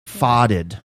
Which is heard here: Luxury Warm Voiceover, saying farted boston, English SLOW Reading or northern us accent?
saying farted boston